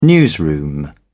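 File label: "newsroom", British English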